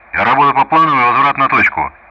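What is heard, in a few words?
Speech Sound Russian; DCS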